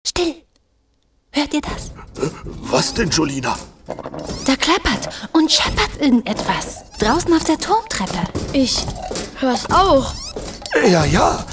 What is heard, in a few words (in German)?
hoerspiel kinder, sprecherin